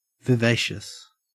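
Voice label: "vivacious", Australian English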